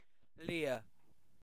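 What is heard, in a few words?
"leer", British English; pronunciations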